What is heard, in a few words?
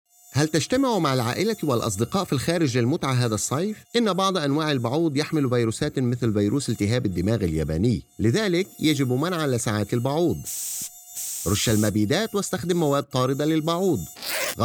arabic; nsw health; mosquito-borne